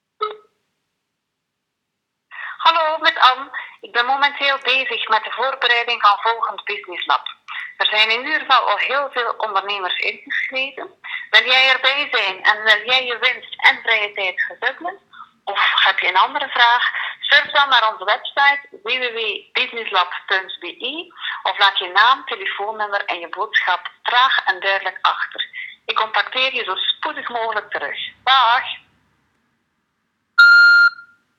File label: Voice Mail